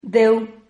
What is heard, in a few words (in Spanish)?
"deu", Silabas